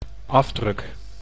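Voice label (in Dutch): "afdruk", Dutch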